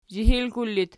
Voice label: "zhihilkul", Archi

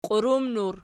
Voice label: "qiurumnur", Archi

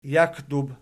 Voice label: "jakdub", Archi

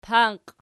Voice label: "panqi", Archi

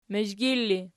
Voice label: "mizhgil", Archi

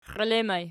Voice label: xielettur ergpl, archi

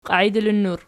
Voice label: "qiaidilinnur", Archi

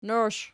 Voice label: "noish", Archi

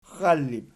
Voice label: "xxallib", Archi

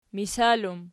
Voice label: "misal", Archi

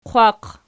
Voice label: "qwaq", Archi

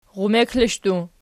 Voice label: "rumeklashdu", Archi

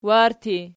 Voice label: warti nom, archi

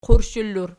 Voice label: "qurshela", Archi

